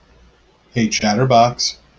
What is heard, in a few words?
hey chatterbox, en us